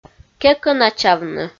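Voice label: "кекӧначавны", Komi, female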